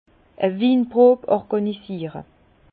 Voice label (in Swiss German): "organisiere", Bas Rhin; vin; organiser une dégustation